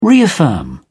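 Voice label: "reaffirm", British English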